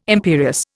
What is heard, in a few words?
"imperious", Indian